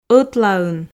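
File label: "ӧтлаын" Komi, female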